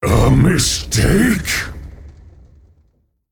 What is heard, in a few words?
Male Elemental Oops